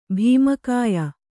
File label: "ಭೀಮಕಾಯ", Kannada